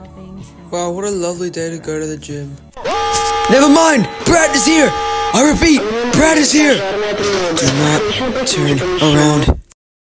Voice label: uh oh